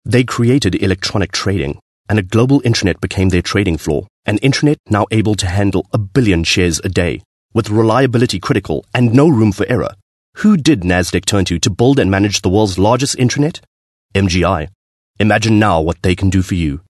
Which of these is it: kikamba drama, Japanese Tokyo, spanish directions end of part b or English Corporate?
English Corporate